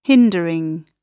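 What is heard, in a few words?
"hindering", British English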